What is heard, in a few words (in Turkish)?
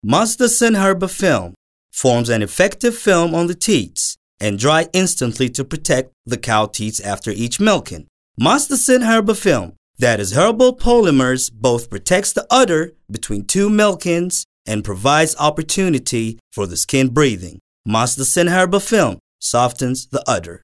İNGİLİZCE